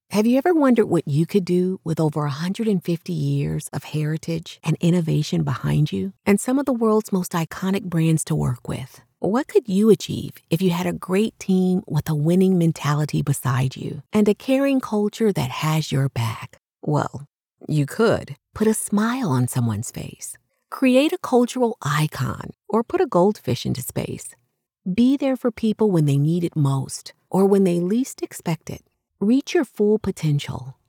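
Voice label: English, US, female, narration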